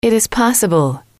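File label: "it is possible", English, female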